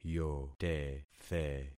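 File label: "yotethe", concatenated syllables; Affixing experiment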